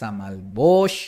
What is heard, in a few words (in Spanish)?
gris - "Samal Boox", Maya